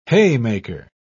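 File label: "haymaker", British English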